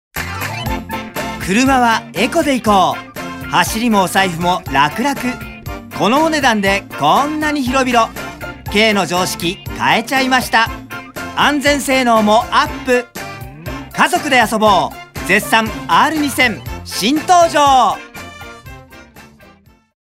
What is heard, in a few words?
narration